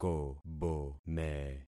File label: "kobome", concat syllables; Affixing experiment